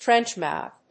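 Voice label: "Trench Mouth", English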